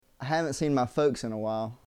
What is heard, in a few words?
Southern American English, I haven’t seen my folks in a while.